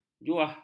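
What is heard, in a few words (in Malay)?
"juah", Malay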